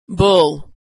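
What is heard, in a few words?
"bull", American English; vowel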